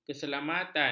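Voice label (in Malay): "keselamatan", Malay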